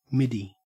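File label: "middy", Australian English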